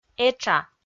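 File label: "этша", Komi, female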